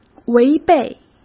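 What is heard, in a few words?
"违背", Mandarin Chinese; hsk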